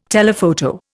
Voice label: "telephoto", Indian